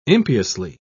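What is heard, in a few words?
"impiously", British English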